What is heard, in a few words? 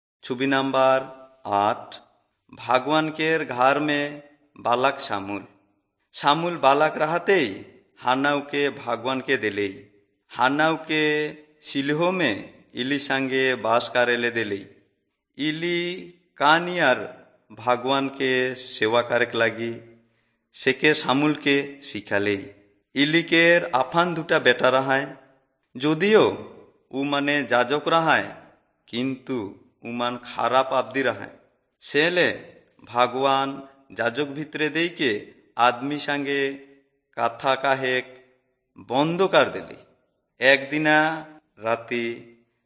servants of god urao, kurukh drama